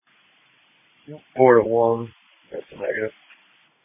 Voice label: Police Dispatch